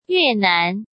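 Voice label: "yuenan", Chinese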